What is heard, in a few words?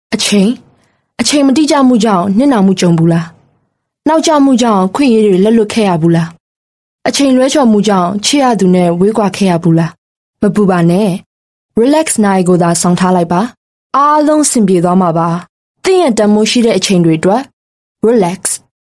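Burmese Female TVC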